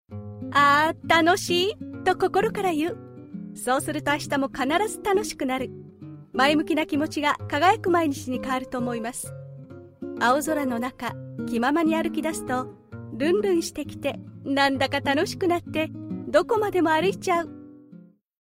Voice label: locutora japonesa

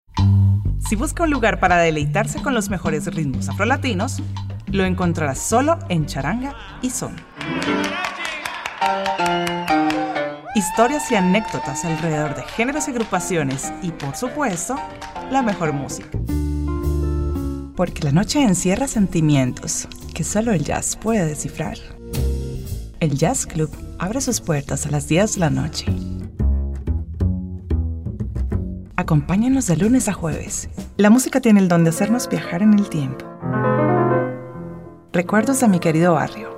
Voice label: Locutora colombiana

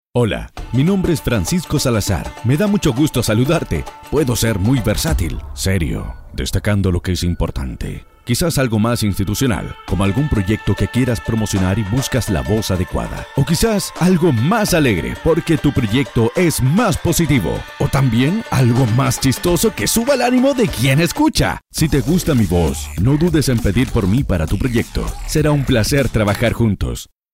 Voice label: Locutor chileno

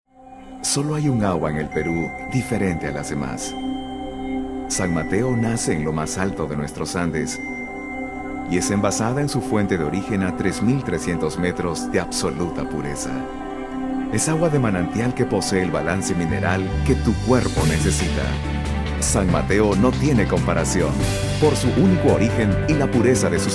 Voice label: Locutor Peruano

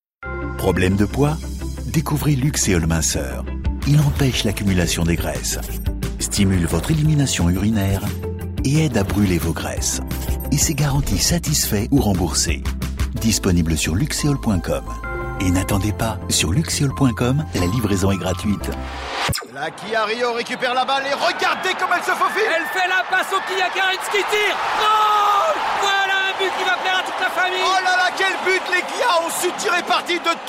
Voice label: locutor frances; francia

